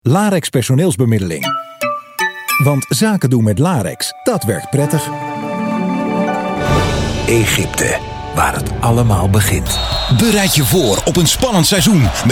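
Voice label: dutch male, voice samples commercial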